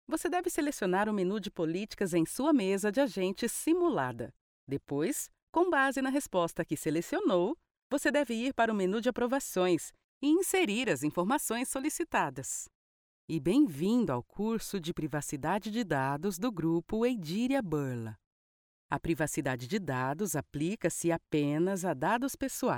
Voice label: portuguese brazilian e-learning

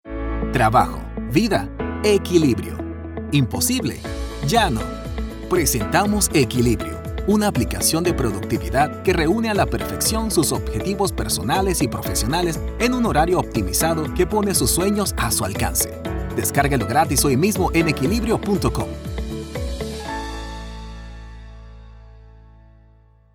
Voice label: Spanish Latin American promos

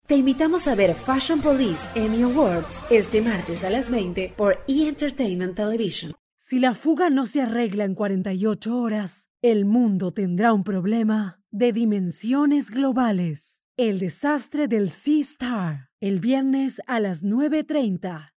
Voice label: spanish latin american promos

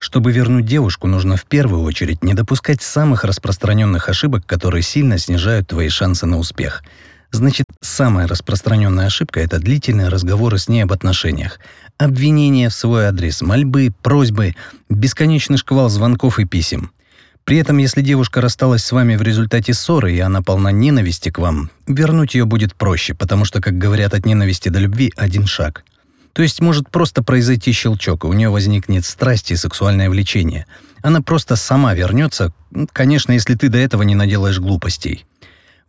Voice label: russian podcasts

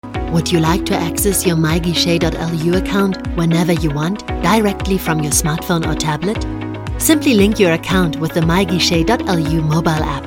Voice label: english corporate videos

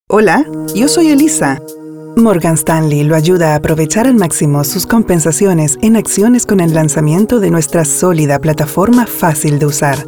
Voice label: spanish latin american commercial

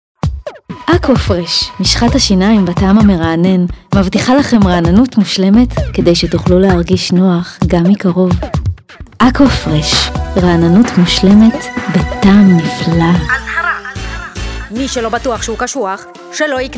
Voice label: hebrew commercial

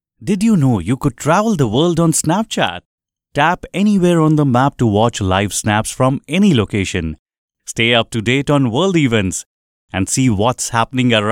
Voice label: english indian promos

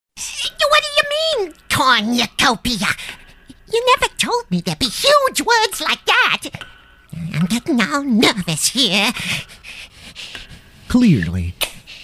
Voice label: english american cartoon animation

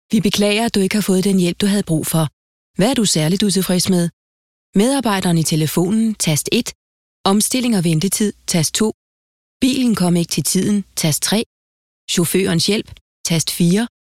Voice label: danish ivr

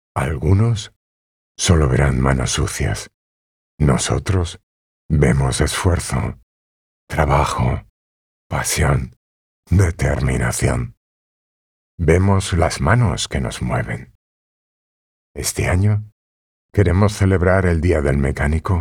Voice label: spanish corporate videos

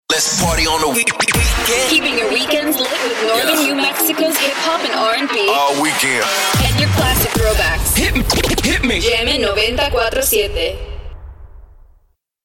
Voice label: english american radio imaging; professional bilingual (English and Spanish) voice actor

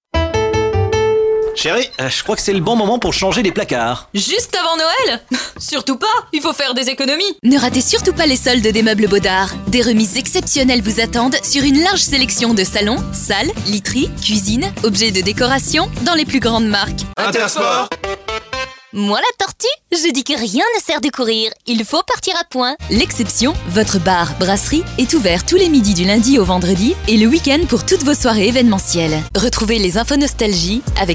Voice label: french commercial

